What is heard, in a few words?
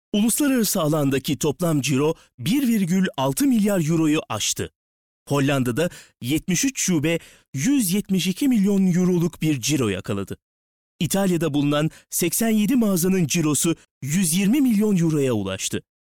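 tr, Turkish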